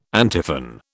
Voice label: "antiphon", British